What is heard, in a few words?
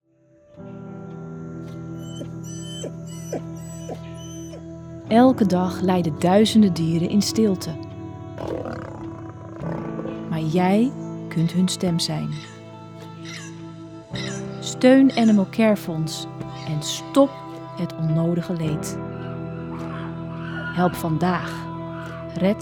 voice over; Animal care fonds